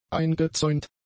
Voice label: "eingezäunt", German; adjectives